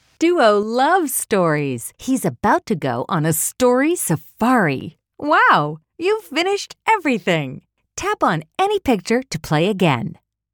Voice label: enthusiastic fun

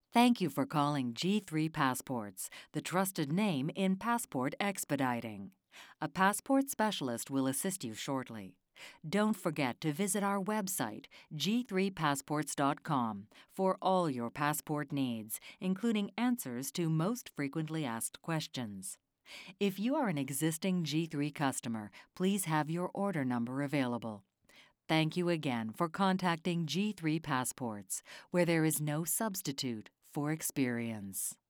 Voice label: deep calming; G3 Passport

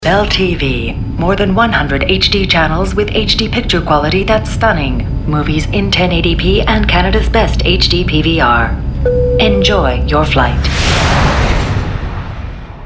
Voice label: deadpan tongue in cheek; Bell; AI

